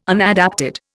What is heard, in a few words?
"unadapted", Indian